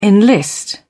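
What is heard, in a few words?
"enlist", British English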